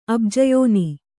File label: "ಅಬ್ಜಯೋನಿ", kannada